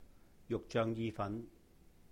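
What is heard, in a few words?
"yuhk-jeung yi-fān", Cantonese; spaghetti Bolognese; 肉醬意粉; juk6zoeng3ji3fan2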